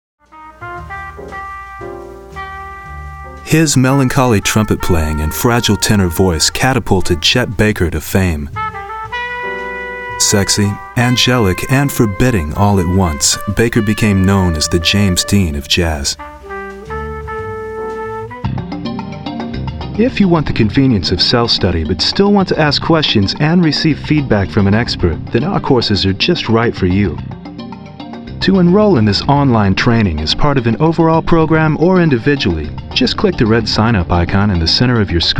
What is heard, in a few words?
narration